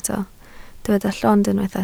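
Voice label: "llond" Welsh, spoken word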